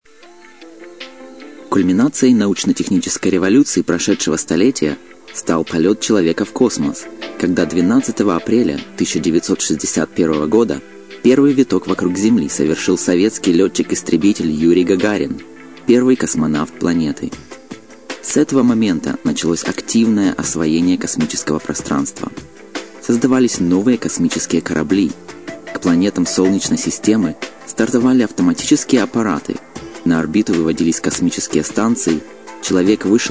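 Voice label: russian male voice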